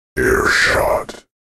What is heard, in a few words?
"airshot", announcer